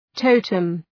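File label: "totem", English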